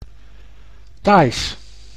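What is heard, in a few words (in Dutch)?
"Thais", Dutch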